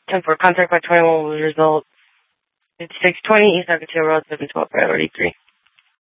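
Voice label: Sheriff, Parks, Sheriff radio scanner; Maricopa County (P25) - Thompson Peak; Shrf Mnt (Parks)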